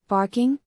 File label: "barking", English; звуки животных на английском; лай; Salli